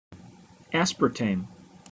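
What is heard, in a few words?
"aspartame" English, US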